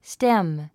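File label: "stem", 英単語 発音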